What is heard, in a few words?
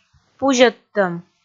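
"пузьӧдтӧм", Komi, female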